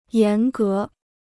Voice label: "严格", Chinese